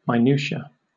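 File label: "minutia", British English; pronunciations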